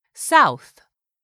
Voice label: "south", english; 3000 words

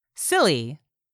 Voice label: "SILLY", english; 3000 words

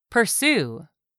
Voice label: "pursue", english; 3000 words

